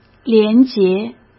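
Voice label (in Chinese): "廉洁", Mandarin; chinese-flashcards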